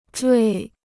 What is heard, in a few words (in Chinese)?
"坠", Chinese